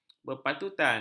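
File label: "berpatutan", Malay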